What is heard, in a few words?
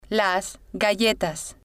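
"las galletas", Spanish, female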